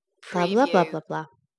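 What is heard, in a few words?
Woman Blah Blah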